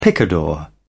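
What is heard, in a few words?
"picador", British English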